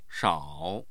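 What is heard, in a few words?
"shao3", Mandarin Chinese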